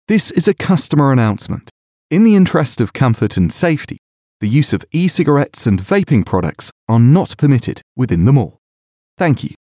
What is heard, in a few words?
Male; E-Cigarettes